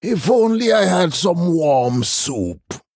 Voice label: Male Troll Thinking

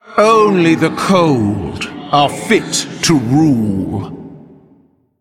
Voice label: Female Elemental Play

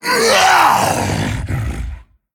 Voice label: Male Mummy (Tol'vir) Death

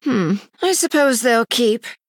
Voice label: Female High Elf After Freezing; Bacon Shop Bob

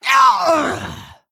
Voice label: Female Orc Death

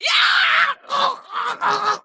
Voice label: Female Harpy Death

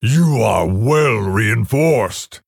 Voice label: Male Orc Well Played

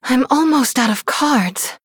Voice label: Female Human Low Cards; Story Hero Jaina

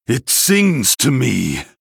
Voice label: Male Sanlayn Attack

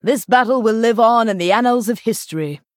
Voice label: Female Night Elf Well Played; Elise

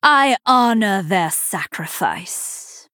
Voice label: Female Night Elf

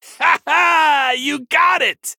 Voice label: Male Human After Triple

